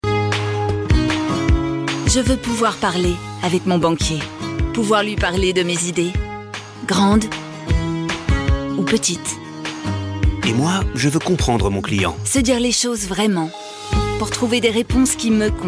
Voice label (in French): naturelle complice; BANQUE POPULAIRE